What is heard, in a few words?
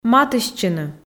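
"матыстчыны", Komi, female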